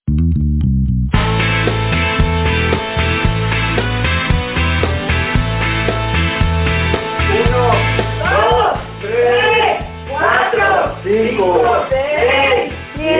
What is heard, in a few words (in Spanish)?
Spot; habitacion101